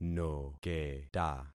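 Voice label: "noketa", concatenated syllables; Affixing experiment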